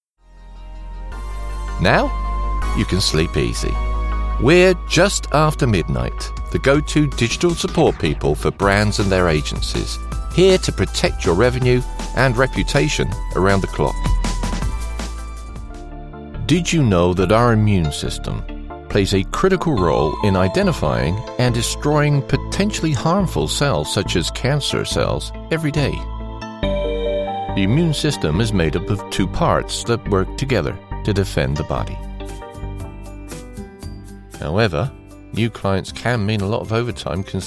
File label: Ongoing learner Stereo